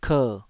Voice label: "ker3", taiwanese syllables